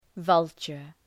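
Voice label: "vulture", English word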